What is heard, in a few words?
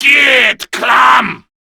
Player Spotted, Male; Warframe; Grineer Male B; Battle Barks